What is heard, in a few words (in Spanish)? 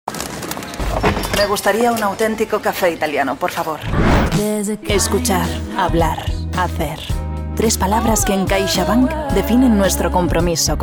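generico España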